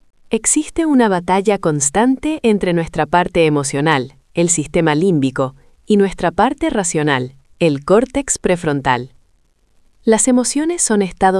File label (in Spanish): locucion